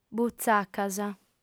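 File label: "butzacas", female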